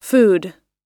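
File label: "food", English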